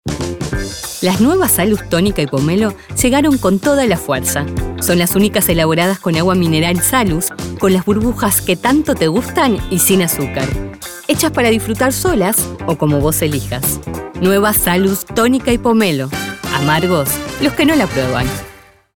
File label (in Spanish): spot comercial refrescos